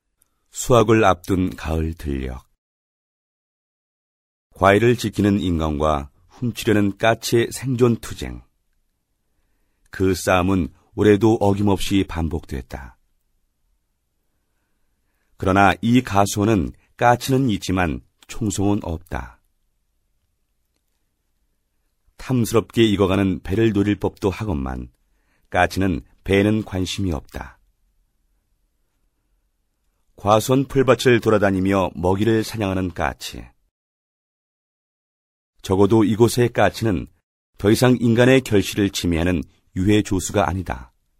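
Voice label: Korean, male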